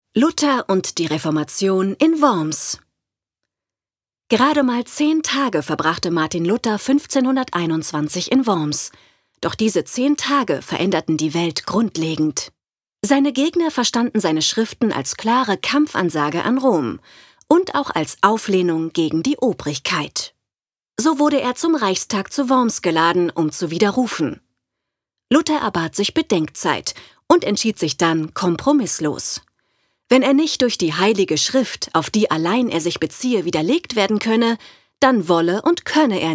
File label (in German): Audioguide; Worms